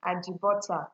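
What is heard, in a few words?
"ajibota", Nigerian Pidgin, female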